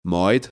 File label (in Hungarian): "majd", Gps